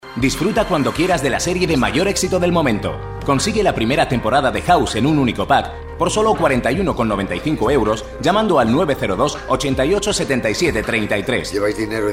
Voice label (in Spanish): comercial